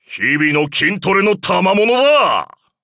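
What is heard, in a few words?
level up, Japanese; cookie run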